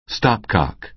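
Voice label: "stopcock", American English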